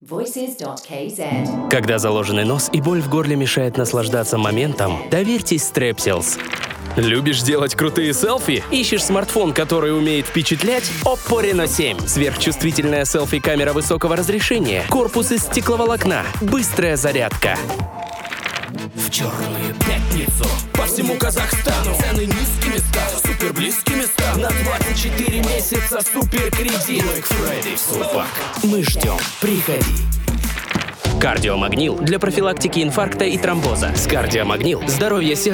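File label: russian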